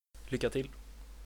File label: good luck, swedish